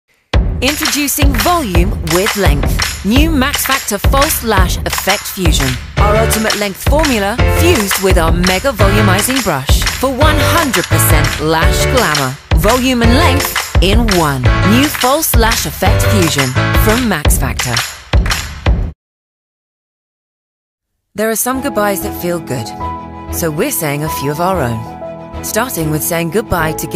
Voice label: Voice Over Reel